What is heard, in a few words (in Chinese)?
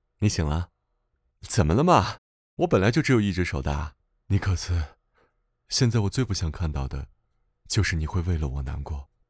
低沉霸总音